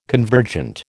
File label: "convergent", American